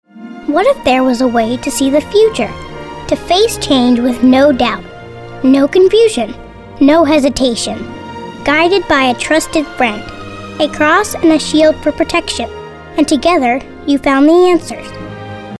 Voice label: motivational

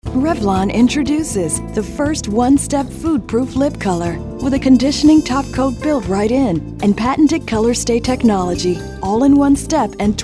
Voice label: smooth

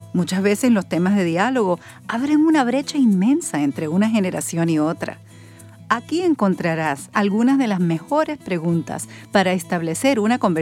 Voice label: Puerto Rican Spanish

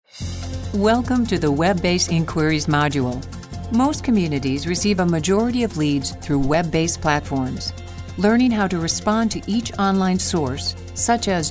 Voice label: corporate

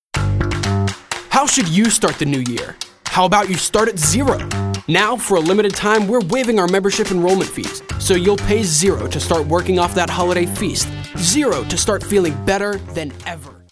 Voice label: animated

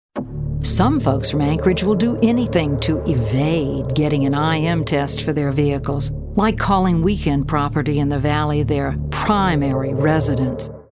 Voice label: attitude

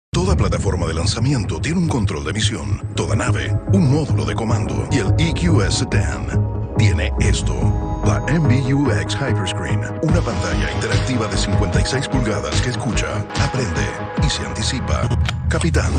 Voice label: Commercial

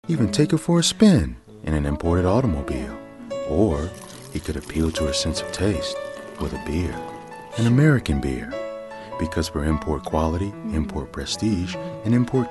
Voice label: Classy

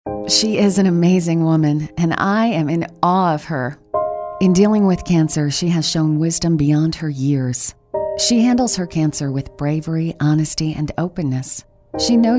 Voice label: caring anti announcer narrative

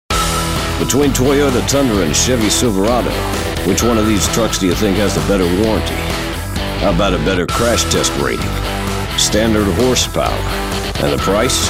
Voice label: authoritative

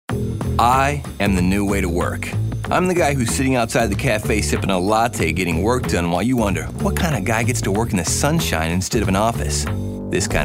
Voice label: attitude

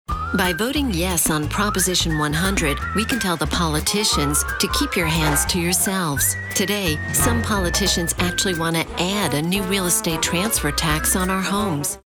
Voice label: Announcer Political; PickPocket

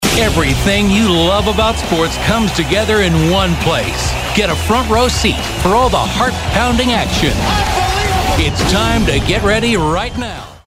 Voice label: sportscasters

